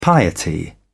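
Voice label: "piety", British English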